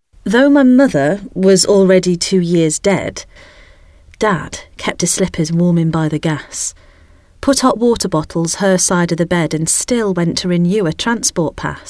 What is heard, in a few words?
POETRY; Long Distance II; Harrison